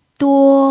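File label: "duo", Mandarin Chinese, first tone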